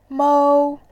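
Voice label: "mou", Mandarin, tone 1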